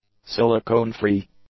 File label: "silicone-free", English; adjectives